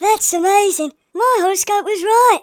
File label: amazing, speech, Hippy